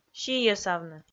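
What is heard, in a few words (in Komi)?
"сийӧсавны", Komi, female; kpv audio dict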